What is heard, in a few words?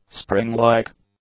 "spring-like", english; adjectives